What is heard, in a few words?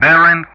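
"bearing", English; Ka-50; DCS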